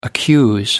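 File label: "accuse", English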